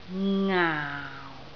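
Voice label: "ngaau4", Cantonese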